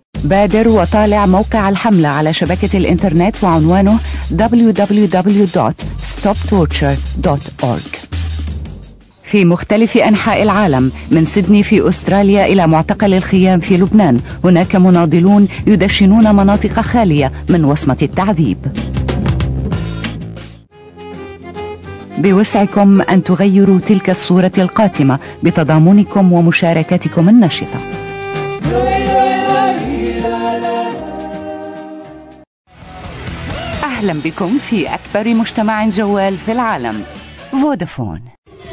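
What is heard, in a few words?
arab female voice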